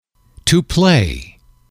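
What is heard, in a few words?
"to play", English, male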